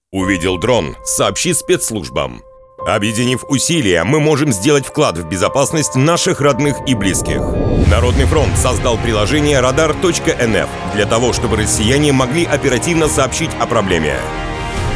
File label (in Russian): audioreklama; radar